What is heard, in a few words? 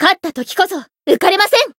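Voice win, Japanese; azami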